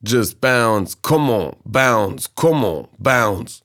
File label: Vocals; Vengeance - Essential Clubsounds Vol.4